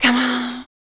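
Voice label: vocal female, Dance Vocals, spoken words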